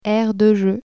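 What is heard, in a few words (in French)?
"aire de jeux", French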